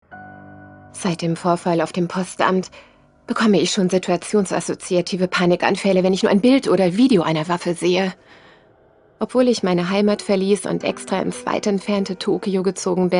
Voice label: Voice Over